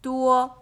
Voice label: "duo", Chinese